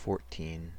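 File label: "fourteen" US English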